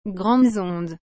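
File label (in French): "grandes ondes", French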